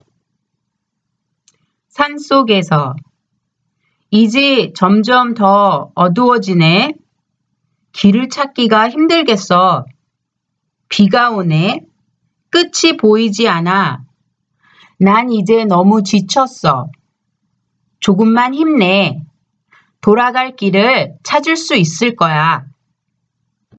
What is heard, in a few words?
conversation; K-Pop Volume 3